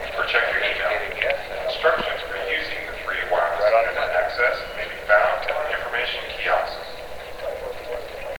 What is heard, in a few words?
Airport announcement